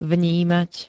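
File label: "vnímať", Slovak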